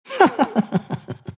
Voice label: monkey laugh; dota; monkey king